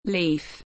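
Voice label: "leaf", English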